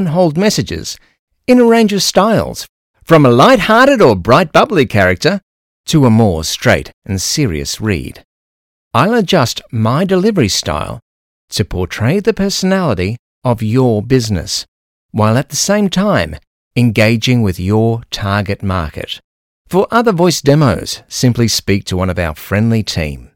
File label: MALE